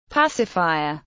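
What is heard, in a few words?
"pacifier", English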